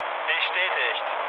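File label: German player killed enemy mood low, speech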